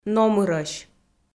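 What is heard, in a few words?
"номырӧсь", Komi, female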